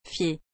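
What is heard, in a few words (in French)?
"fier", French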